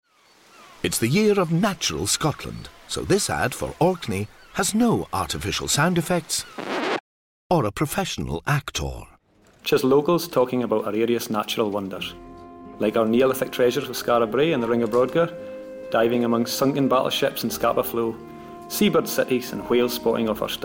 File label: Ad; Visit Scotland